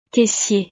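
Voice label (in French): "caissier", French; profesiones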